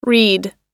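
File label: "read", American English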